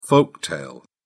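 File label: "folk tale", British English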